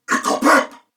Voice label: Player Spotted Male, en; Corpus Venus; Warframe; CorpusVenusMaleB

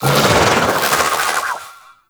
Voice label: Vocal Attack; Warframe; Sentients; Conculyst

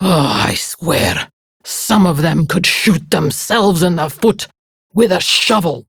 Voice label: Patrol Prime Grineer Female; Tau; Battle Barks

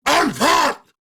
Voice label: Attack Grineer Ghoul Sargeant, en

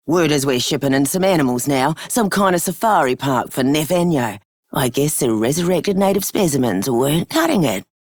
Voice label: Female Worker; Warframe; Solaris Venus

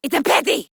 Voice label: Attack, Female

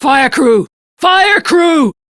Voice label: Crew See Fire, Steel Meridian Female; Dialog; en; Warframe; RailjackCrew

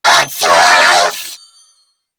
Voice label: Attack Grineer Female; New War; Narmer

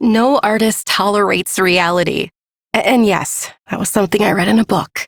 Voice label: Ambient Female, Dialog; Duviri

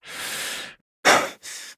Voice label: Battle Adult

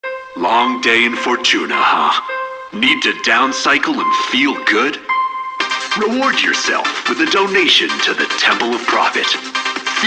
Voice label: PA System, en; Warframe; Solaris Venus

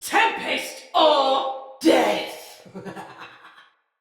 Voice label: Attack, Male; Barks Battle; Ode Tech; Sinecure Banneret

